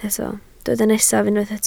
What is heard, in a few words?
"nesaf", Welsh